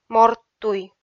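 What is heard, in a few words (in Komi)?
"морттуй", Komi, female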